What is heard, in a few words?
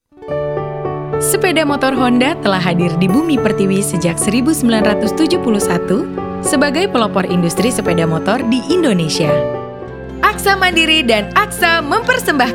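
Indonesian formal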